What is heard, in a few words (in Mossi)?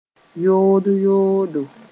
"yood-yoodo", moore; back and forward